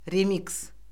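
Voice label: "remiks", Azerbaijani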